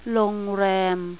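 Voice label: "hotel", male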